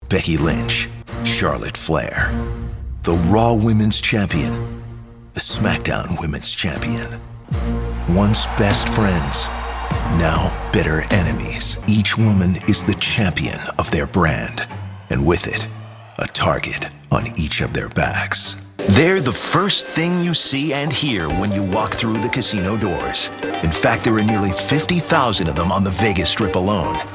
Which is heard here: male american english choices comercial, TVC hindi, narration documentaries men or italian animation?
narration documentaries men